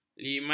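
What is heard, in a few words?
"limas", Malay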